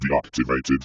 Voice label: "Deactivated", Voices

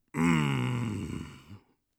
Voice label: satisfied, Orc Voice; Orc Voice Pack

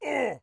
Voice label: hit, orc voice; Orc Voice Pack

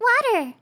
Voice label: "water", RPG Voice; RPG Voice Starter Pack

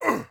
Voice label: defend, orc voice; Orc Voice Pack

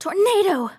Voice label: "tornado", RPG Voice; RPG Voice Starter Pack

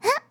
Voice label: jump, RPG Voice; RPG Voice Starter Pack

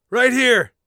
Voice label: here, Warrior Voice; Warrior Voice Pack

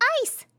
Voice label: ice, RPG Voice; RPG Voice Starter Pack

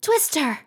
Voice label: twister, RPG Voice; RPG Voice Starter Pack

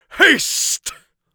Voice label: haste, mage voice; Mage Voice Pack

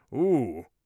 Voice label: satisfied, Mage Voice; Mage Voice Pack

